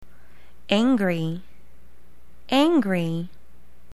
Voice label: angry